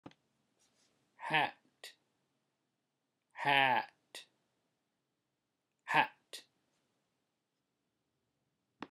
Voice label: vowel hat